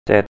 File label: "cèt" 'seven', Thai